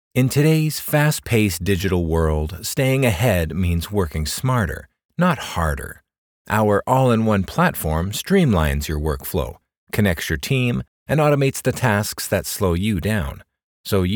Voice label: Explainer - Confident, Clear, Approachable